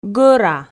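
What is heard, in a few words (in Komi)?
"гӧра", Komi, female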